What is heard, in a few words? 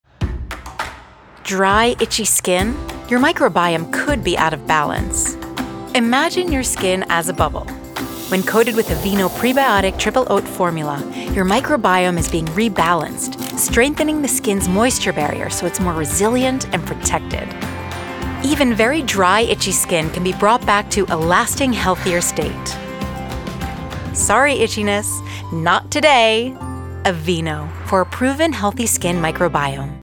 english us and canada female commercial